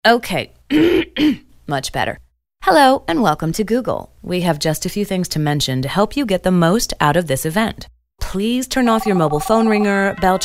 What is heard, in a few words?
women narration